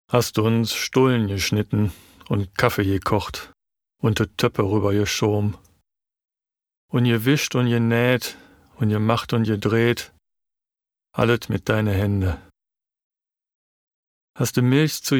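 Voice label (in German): Lyrik Berliner Dialekt, Stil: Berliner Dialekt, emotional, altmodisch, warm, charakterstark; Mutterns Hände (Kurt Tucholsky)(Lyrik & Dialekt)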